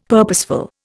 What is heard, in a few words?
"purposeful", Indian; Dictionary